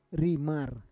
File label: "rimar", Roon